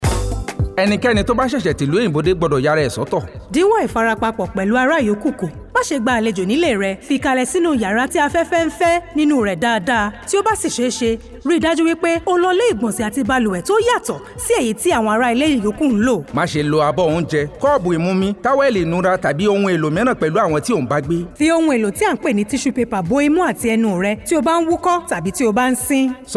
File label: Yoruba; Self Isolation